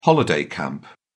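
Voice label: "holiday camp", British English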